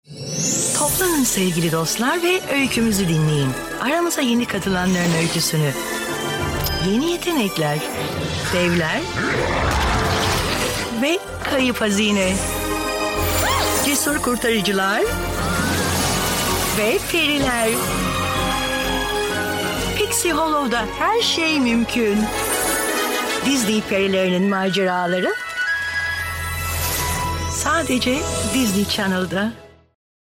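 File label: Turkish general; GoLocalise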